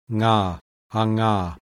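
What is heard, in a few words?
voiced velar nasal; IPA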